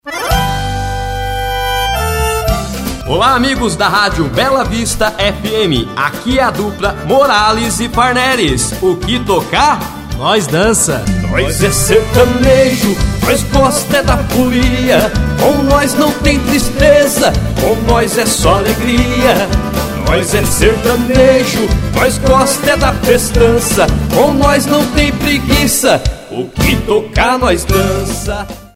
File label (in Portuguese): vinheta rádio; radio bela vista fm